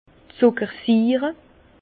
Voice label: "zucker siire", Bas Rhin; vin; bonbon anglais sucréacide

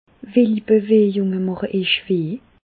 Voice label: bewejung, Bas Rhin; medecine

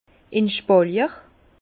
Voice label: "in spallier", Bas Rhin; vin; en espalier

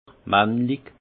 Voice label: "mannlich", alsace; vin; masculin

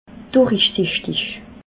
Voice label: "durichsichtig", alsace

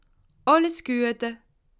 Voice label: "guete", alsace

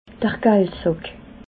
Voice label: "galdsack", alsace

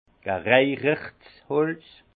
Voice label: "gereicherts holz", alsace; vin; bois fumé

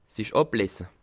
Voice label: "ablese", alsace

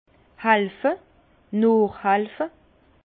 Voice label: "halfe", Bas Rhin; vin; aider

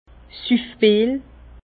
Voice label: "suffbil", Bas Rhin; vin; poivrot

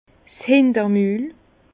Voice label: "hintermul", Bas Rhin; vin; arrière bouche

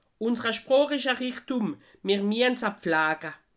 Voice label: "richtum", alsace

